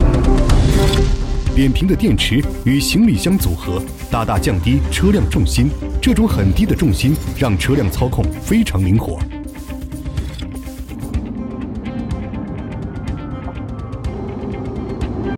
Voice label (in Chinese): 男 译制片解说; 宝马C104